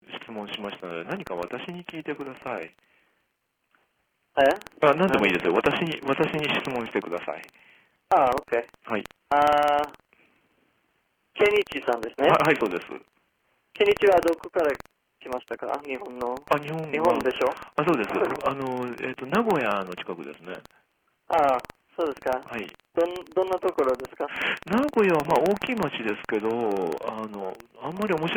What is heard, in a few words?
Japanese speaking intermediate